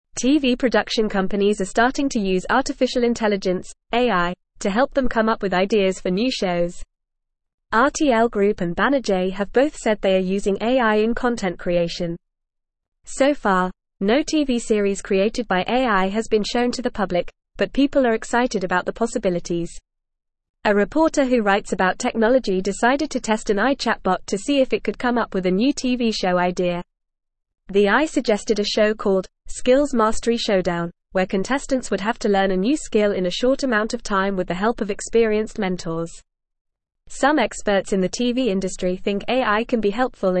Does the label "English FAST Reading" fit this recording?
Yes